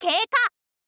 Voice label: "keika", info girl voice

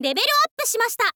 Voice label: "level up shimashita", info girl voice

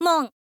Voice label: "mon", info girl voice

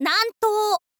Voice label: "nantou", info girl voice

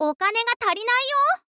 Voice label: info girl "okane ga tarinai yo"

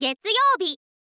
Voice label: "getsuyoubi", girl; voice

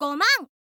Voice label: "goman", info girl voice

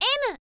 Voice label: "m", girl; voice

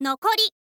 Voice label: "nokori", info girl voice

